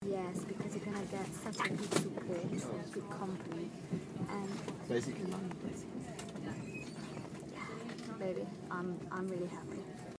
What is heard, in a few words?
really happy phone conversation on the train; outside Reading